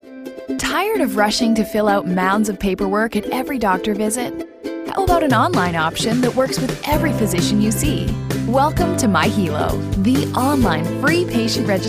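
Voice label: English Canadian, narration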